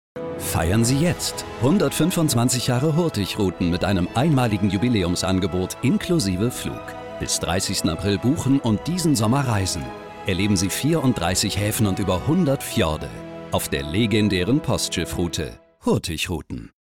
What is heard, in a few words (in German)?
Werbung Funkspot Schiffsreise; LOFT VOICES